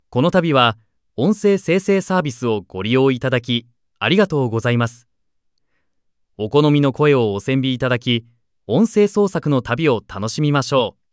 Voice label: Japanese; ai-music